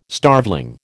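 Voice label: "starveling", American English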